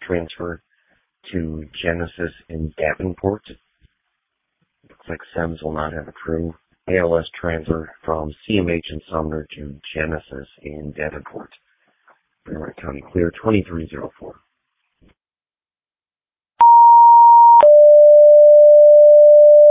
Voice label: Ambulance, dispatch

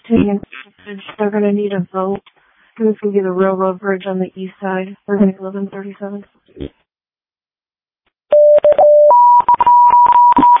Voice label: Waverly Fire, dispatch

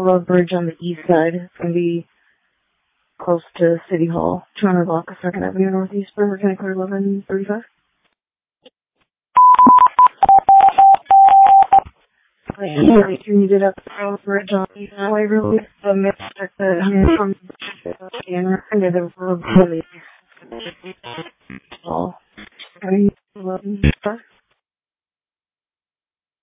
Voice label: Waverly Ambulance, dispatch

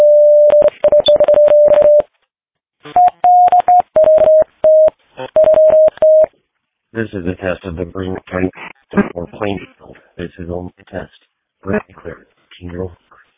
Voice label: Fire, dispatch

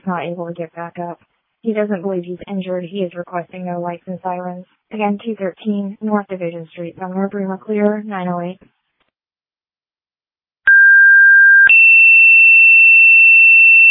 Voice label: Ambulance, dispatch; Sumner

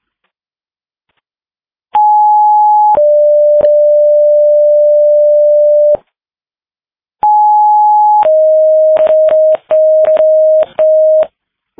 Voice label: Fire, dispatch

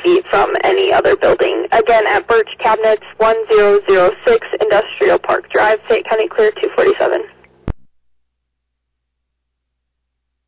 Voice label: Fire, dispatch

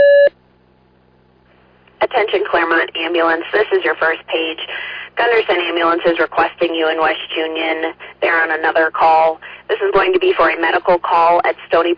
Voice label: Fire, dispatch; Clermont

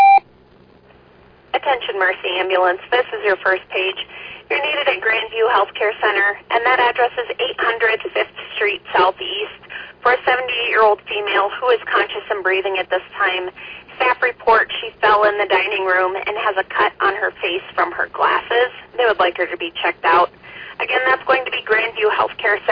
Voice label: Oelwein Ambulance, dispatch

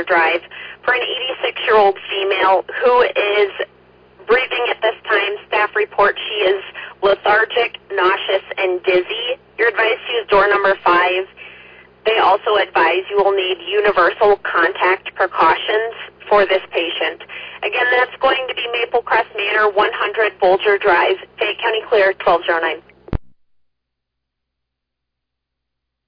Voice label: Ambulance, dispatch

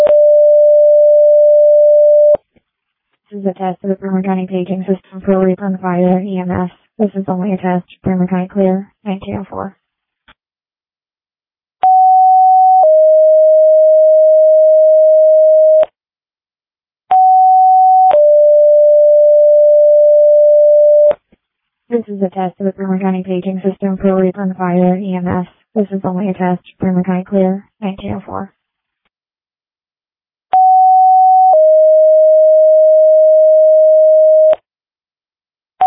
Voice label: fire, dispatch; Readlyn

